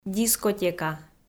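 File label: "дискотека", Komi, female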